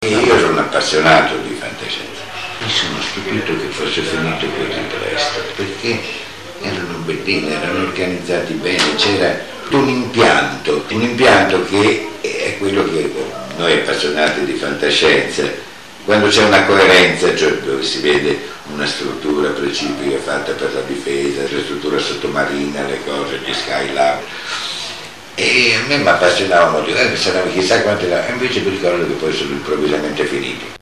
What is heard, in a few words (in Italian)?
voci italiane